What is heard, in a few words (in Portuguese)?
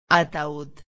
"ataud", espanhol; palavra do dia